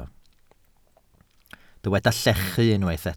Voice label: "llechu", Welsh